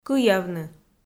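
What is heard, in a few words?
"кыявны", Komi-Zyrian, female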